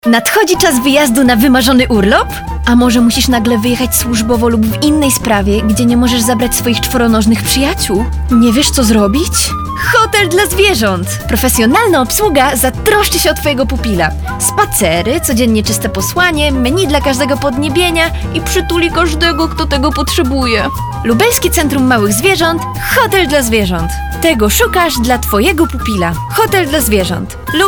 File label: youthful Polish female voice; young Polish female voice artist, 20-30; Youthful, friendly voice